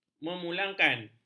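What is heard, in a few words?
"memulangkan", Malay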